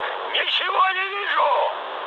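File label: searchlite, mood high, Russian; War Thunder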